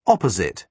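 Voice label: "opposite", British English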